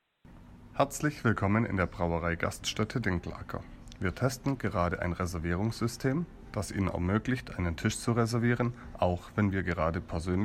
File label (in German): Hoerprobe Telefonat; resmio